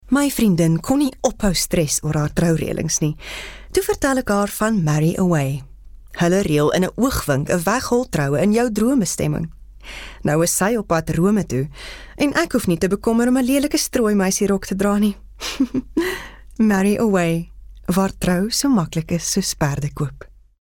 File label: Afrikaans Conversational